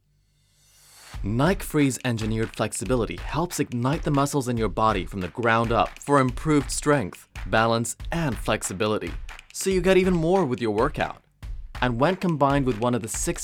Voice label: male, Arabic, USA accent commercial